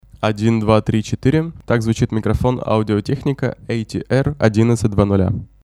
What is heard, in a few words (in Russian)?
Audio-Technica ATR-1100 rech, Речь; Звучание Audio-Technica ATR-1100 — Речь; динамических кардиоидных микрофонона; Рассмотрим 4 бюджетных динамических кардиоидных микрофонона от Audio-Technica, линейки ATR